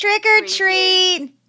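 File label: Kid Says Trick or Treat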